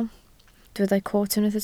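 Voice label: "coot" Welsh, spoken word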